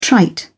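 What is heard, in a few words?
"trite", British English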